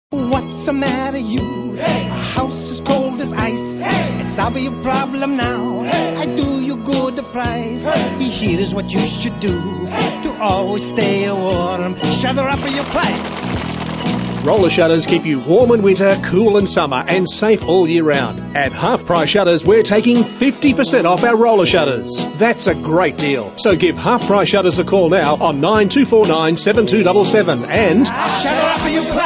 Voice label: radio ad; Half Price Shutters